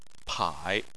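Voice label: "pai" third tone, Chinese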